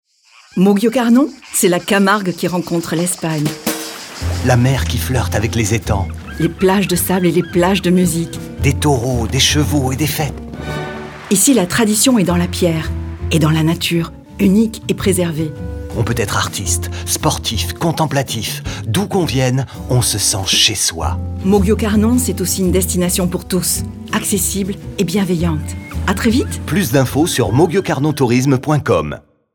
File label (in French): spot radio; mauguio carnon; fb occitanie